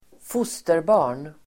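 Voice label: "fosterbarn", Swedish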